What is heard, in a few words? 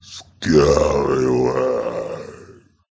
Pirate Groan